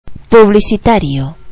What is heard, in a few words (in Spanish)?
publicitario